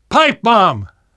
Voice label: spot grenades, survivor voice; Left 4 Dead 2; left4dead2 dlc3

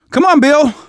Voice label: revive friend, survivor voice manager

